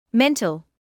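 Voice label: "mental", British English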